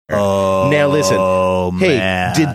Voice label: drawn out oh man

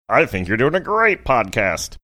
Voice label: saying i think youre doing great podcast funny

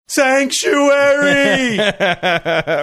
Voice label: yelling "sanctuary"

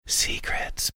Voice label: whispering

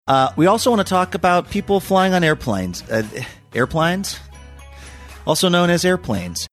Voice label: tripped over word airplane

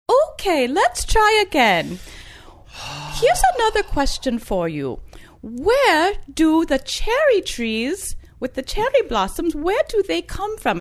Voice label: speaking as bus driver with scandinavian accent

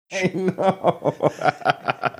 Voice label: saying i know laughing

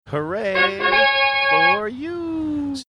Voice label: drawn out hooray for you

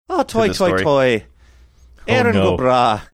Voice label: saying ah toi toi toi erin go bragh irish

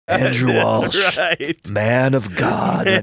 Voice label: saying in deep voice

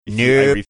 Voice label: "nope" funny

